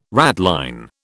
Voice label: "ratline", British